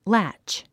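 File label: "latch", English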